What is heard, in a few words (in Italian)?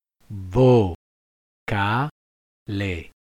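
"vocale" Italian word, voce; vo 'ka le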